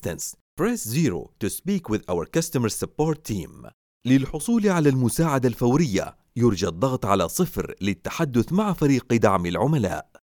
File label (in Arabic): دهانات سيجما عربي اجليزي IVR